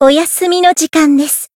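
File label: finish, Japanese; sincere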